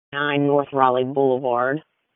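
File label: Police Northeast District Dispatch; Raleigh; wake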